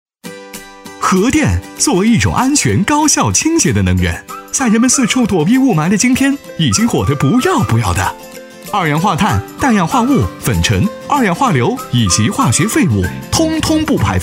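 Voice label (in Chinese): 男（轻松 年轻）; 核电; 飞碟说; 核电金钟罩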